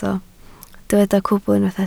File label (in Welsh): "cwbl", Welsh